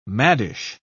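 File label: "maddish", British English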